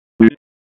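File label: Raleigh Police North District Tactical, p25; wake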